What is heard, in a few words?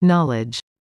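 "knowledge", English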